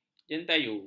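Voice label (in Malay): "jentayu", Malay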